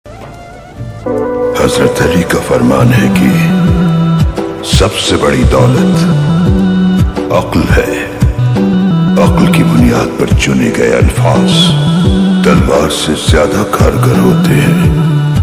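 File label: Islamic dialogue ringtone; Ibnul Arabi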